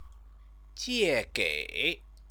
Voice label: "jiegei", Chinese